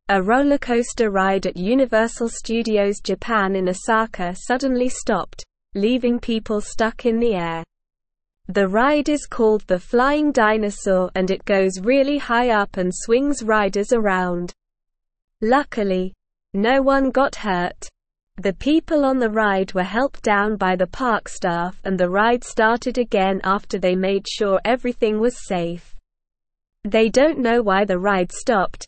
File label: English Upper Intermediate SLOW Reading; English Newsroom; Rollercoaster at Universal Studios Japan stops mid